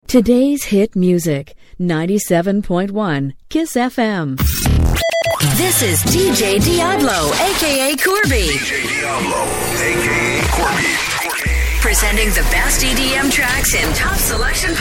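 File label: English Canadian, female, commercial; voice demos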